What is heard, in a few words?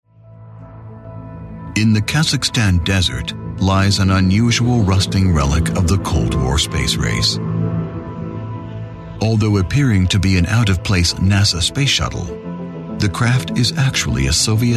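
US English, male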